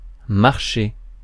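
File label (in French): "marché", French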